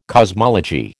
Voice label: "cosmology", American

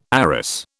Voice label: "arras", British

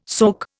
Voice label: "soke", Indian

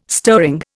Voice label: "stirring", Indian

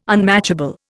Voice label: "unmatchable", Indian

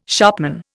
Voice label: "shopman", Indian

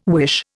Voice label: "wish", Indian

